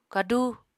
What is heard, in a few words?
"kadú", Apatani